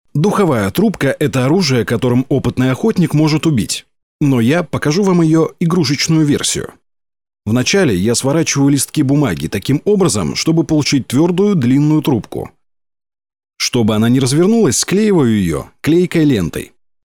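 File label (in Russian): russian male; lektoring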